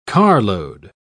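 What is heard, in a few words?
"carload", British English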